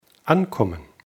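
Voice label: "ankommen", German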